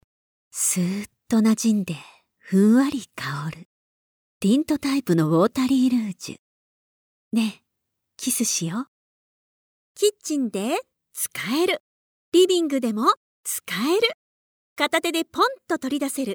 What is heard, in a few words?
female japanese voice over sales